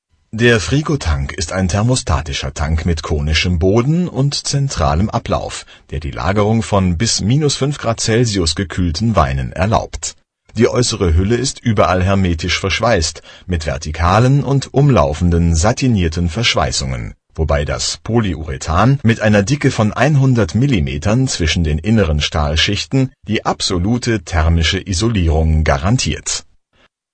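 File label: german male voice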